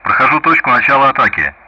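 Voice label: Speech Sound Russian; DCS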